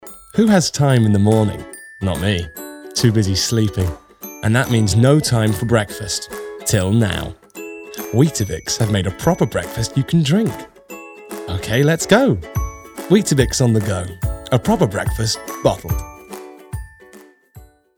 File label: COMMERCIAL; Weetabix